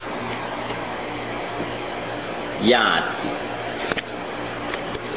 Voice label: "yaati", Tobian; gall bladder; Quackenbush Word List No. 57

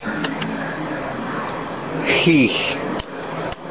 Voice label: "Hinbochariyas", Tobi; Gunwale; Fishing Lore of Tobi

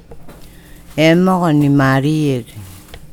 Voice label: emahoni merir, good morning, Tobian; Variant spelling emohoni merir; emoh nimariei; Tobian Lexicon

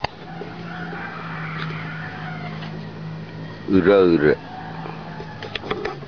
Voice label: "urour", Tobian; fur; Ureri mayorae ehochohoch. The cat's fur is black.

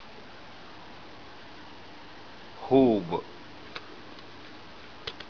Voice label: "huub", Tobian; leg; Ebechebech hin huubom. The skin on your leg

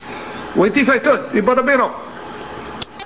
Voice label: Tobian; Weti fatut ibara biroh; Wait a minute, I will go; Tur pay, nang zah beeto; The earliest-known examples of Tobian speech: Dialogues in the Language of Lord North's Is

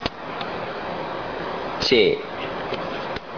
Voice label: "Chee", Tobi; A brace that goes toward the hull, underneath the outrigger; Canoe part: chee; Fishing Lore of Tobi

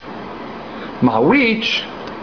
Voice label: Tobian, dialogue; Ma wich?; And bananas?

